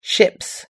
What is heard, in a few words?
"ships", us-english